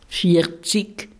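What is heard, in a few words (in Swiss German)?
"viarzig", Alsatian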